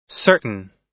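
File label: "certain", American English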